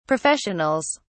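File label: "professionals", English, British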